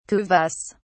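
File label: "kuvasz", British English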